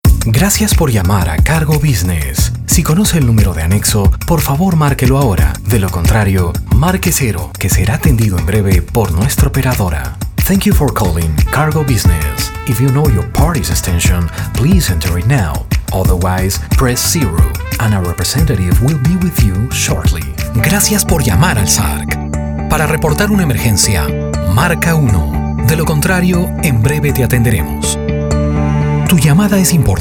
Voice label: IVR

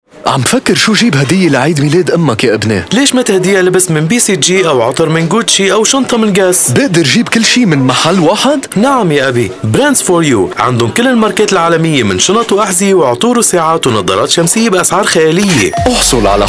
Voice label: Lebanese VO Announcer; Brands 4 You

